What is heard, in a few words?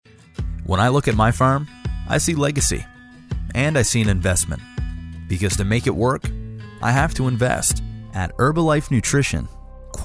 commerical